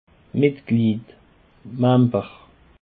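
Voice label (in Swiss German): "metglied", Alsatian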